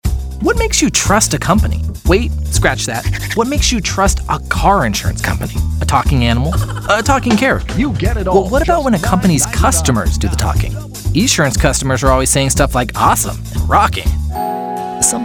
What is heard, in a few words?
Commercial